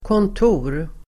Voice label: "kontor", Swedish

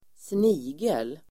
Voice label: "snigel", Swedish

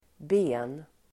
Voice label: "ben", Swedish

